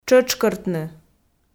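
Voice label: "тшӧтшкӧртны", Komi, female; audio dict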